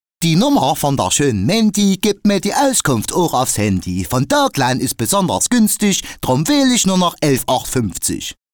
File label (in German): talkline funkspot; (talkline Funkspot)